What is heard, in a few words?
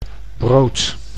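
"brood", Dutch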